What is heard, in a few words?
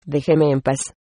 leave me alone, es